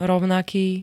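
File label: "rovnaký", Slovak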